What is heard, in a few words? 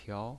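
"piao" second tone, pinyin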